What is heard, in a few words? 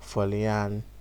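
"falling hand", Nigerian Pidgin, male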